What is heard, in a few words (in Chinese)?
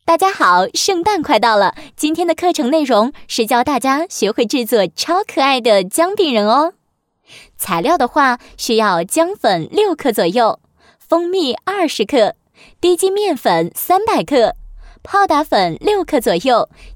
女 甜美 美食制作步骤